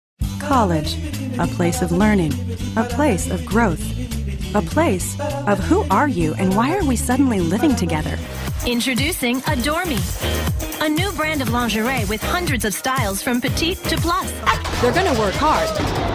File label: commercial